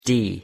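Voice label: "d", English; pronunciation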